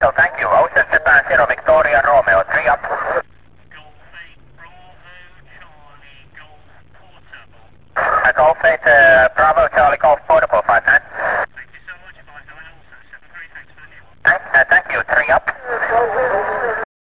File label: qso